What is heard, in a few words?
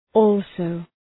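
"also", English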